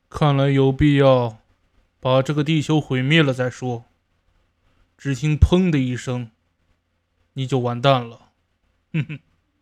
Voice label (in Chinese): 神秘客音